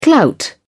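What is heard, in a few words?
"clout", British English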